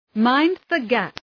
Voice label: Mind the Gap Female, samples from the London Underground; D n B